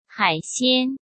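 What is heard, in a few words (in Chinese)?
"海鲜", Mandarin Chinese; chinese flashcards; hsk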